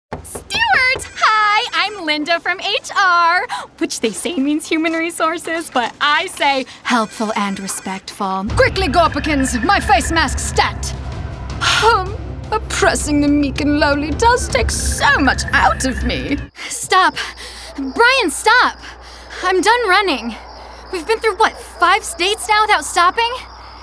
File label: Animation VO Demo